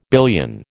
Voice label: "billion", American

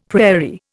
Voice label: "prairie", Indian; Dictionary

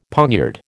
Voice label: "poniard", American

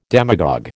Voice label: "demagogue", American

